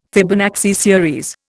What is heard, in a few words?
"Fibonacci series", Indian